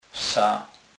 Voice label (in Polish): "wsa", Kurpie